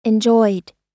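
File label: "enjoyed", American English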